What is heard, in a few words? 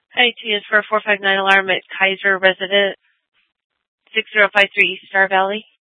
Superstition Dispatch, Mesa PD